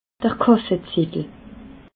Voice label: "kassazettel", alsace

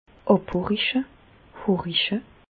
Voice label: "abhoriche", Bas Rhin; medecine; écouter

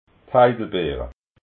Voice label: "heidelbeera", alsace

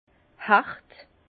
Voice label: "hert", Bas Rhin; vin; âpre

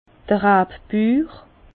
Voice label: "rabbur", Bas Rhin; vin; éleveur

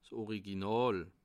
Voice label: "original", alsace

